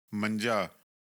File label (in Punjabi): "manjaa", Punjabi